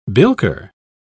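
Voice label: "bilker", English, uk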